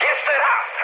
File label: bomb failure mood high, speech pol; War Thunder